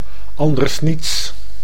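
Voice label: "anders niets", Dutch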